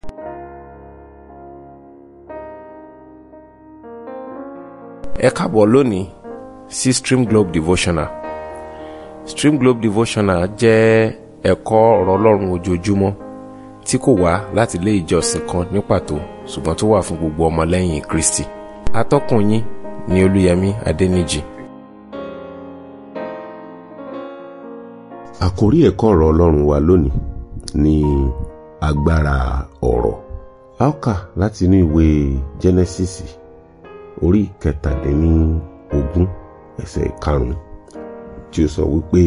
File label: Devotional Audio Yoruba